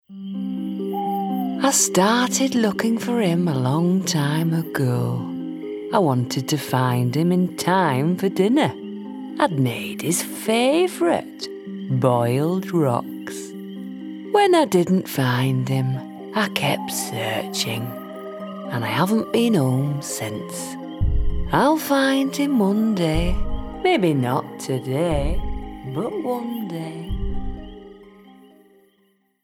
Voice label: Sad Giant Yorkshire Animation

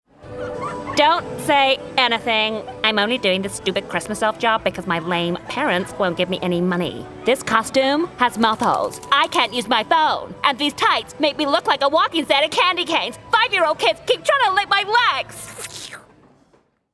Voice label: Spoilt Teenage Comical Elf US Animation

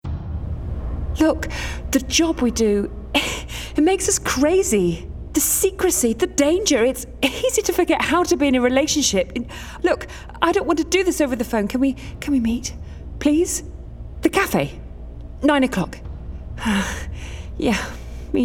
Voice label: Clever Spy Animation

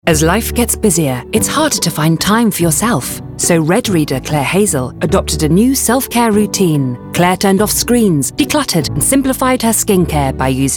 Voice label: assured vibrant; Clarins

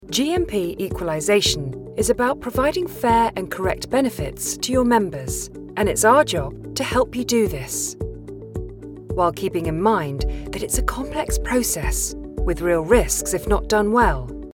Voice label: Explain New Products Knowledgeable Clear

